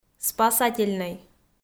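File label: "спасательнӧй", Komi-Zyrian, female; audio dict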